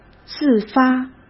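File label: "自发", Mandarin Chinese; hsk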